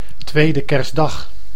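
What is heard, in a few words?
"tweede kerstdag", Dutch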